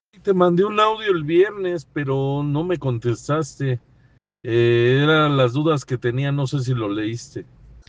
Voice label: whatsapp ptt